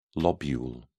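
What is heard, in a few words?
"lobule", English, British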